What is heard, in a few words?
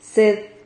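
"ced", Spanish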